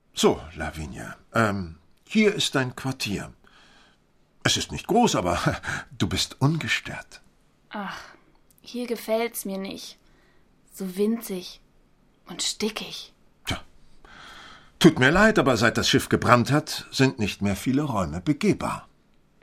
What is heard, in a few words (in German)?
Hoerbuch; Lavinia; mit Christian Rode; naiv bis sexy; Hörbuch